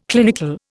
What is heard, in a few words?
"clinical", Indian